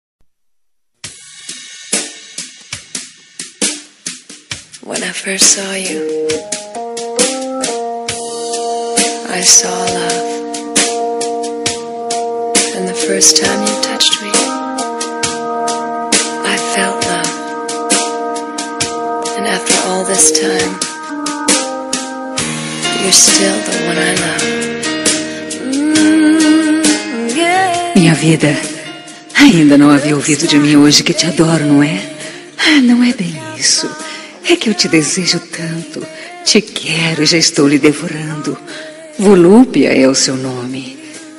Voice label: TELEMENSAGEM ERÓTICA VOZ FEMININA; Eróticas romanticas

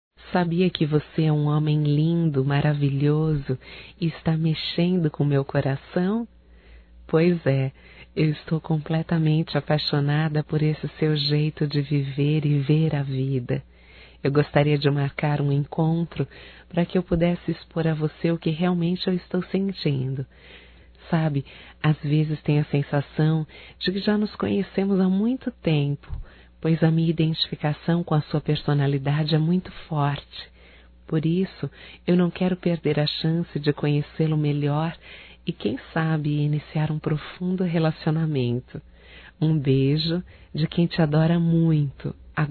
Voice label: PAQUERA(F), Românticas fem

